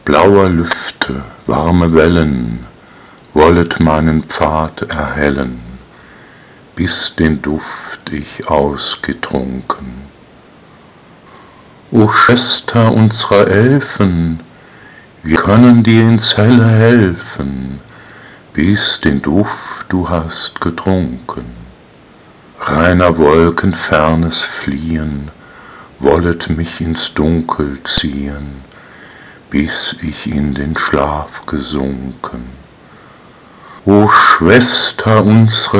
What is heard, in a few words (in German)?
Die weibliche Stimme